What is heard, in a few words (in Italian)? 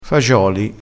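"fagioli", dialetto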